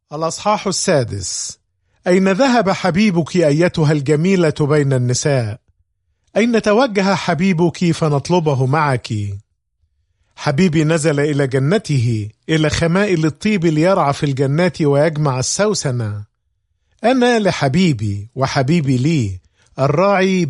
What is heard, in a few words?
Song of Solomon, arabic